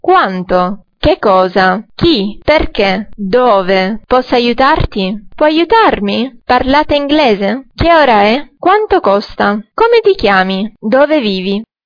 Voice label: italian phrases

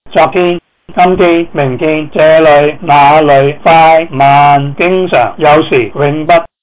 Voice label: cantonese

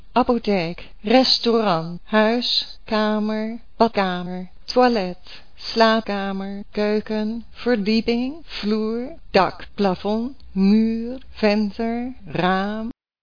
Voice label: dutch

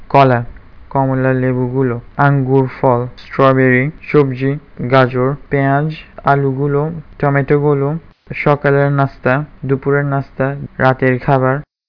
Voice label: bengali; food

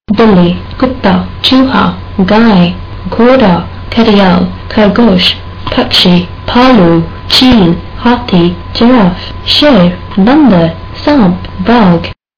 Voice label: hindi; animals

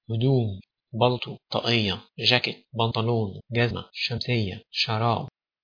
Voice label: egyptian; clothes

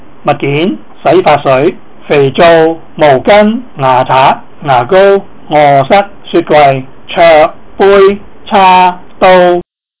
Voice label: cantonese objects

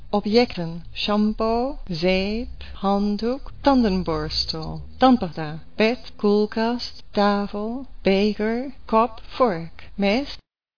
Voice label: dutch

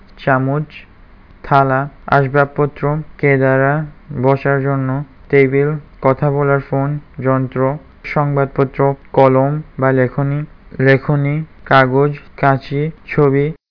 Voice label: bengali

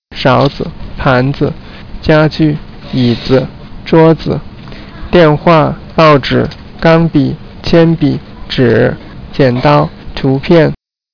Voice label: chinese

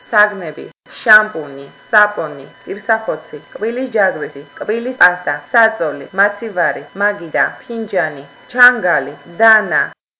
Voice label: georgian

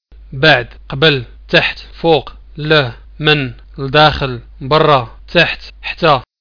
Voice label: moroccan words

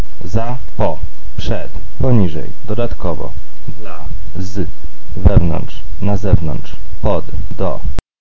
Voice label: polish words

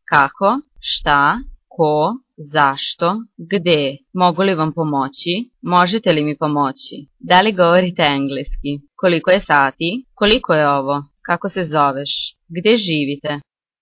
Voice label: bosnian; phrases

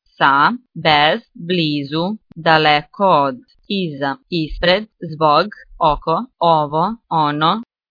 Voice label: bosnian; words

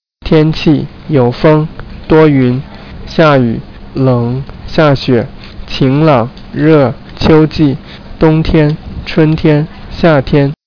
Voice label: chinese; weather

